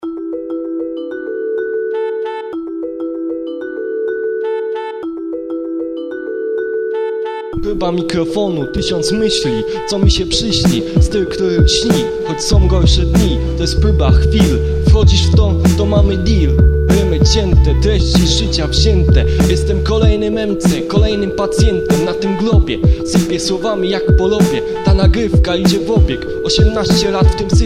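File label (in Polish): Próba Mikrofonu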